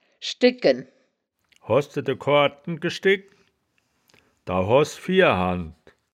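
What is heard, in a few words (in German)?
"schdegken", wittlicher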